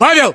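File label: "pavel", battlechatter, Russian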